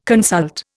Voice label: "consult", Indian